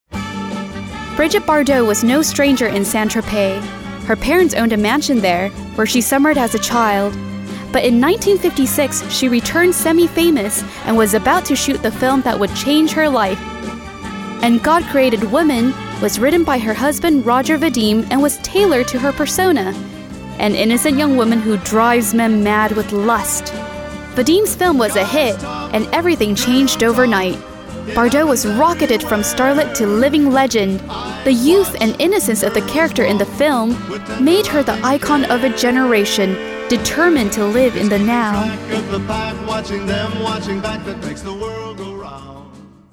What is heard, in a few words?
Narration